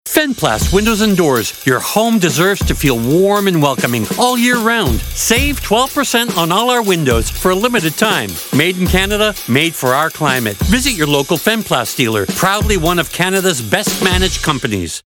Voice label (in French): spot annonceur, anglo ontario; Fenplast